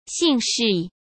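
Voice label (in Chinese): "姓氏", Mandarin Chinese; chinese-flashcards; hsk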